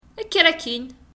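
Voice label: "Kker akin!", Kabyle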